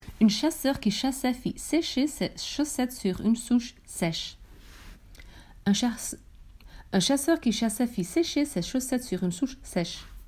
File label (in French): virelangue